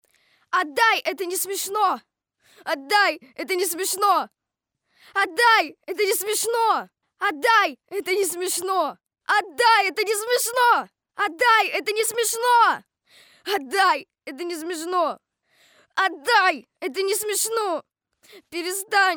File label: Dialog